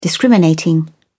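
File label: "discriminating", British English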